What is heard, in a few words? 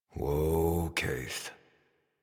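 horse stop walk adult, English; Warframe; Duviri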